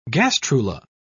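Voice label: "gastrula", British English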